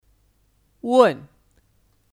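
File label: "问", Chinese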